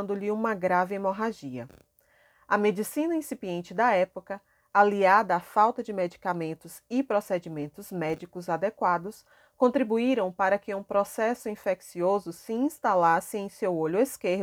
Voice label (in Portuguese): audiodescricao Braille